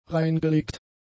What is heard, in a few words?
"reingelegt", German; adjectives